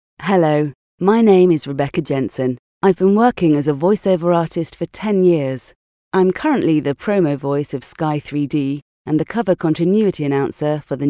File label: British F, British English